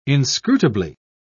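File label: "inscrutably", British English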